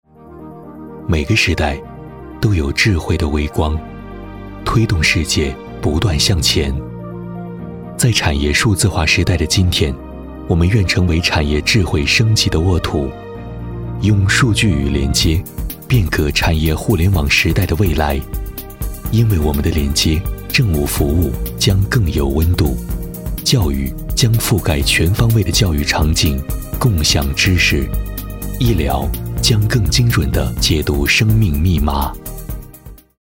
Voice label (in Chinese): 男 科技; 腾讯云